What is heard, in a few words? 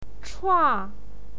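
"chua", Mandarin Chinese, fourth tone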